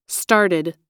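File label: "started", American English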